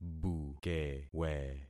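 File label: "bukewe", concatenated syllables; Affixing experiment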